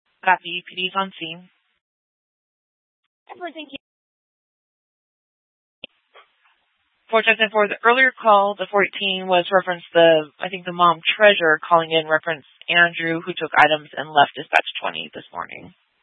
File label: Dispatch, scanner